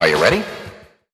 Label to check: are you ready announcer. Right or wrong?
Right